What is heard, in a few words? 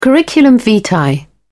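"curriculum vitae", British English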